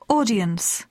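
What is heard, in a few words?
"audience", English; poitiers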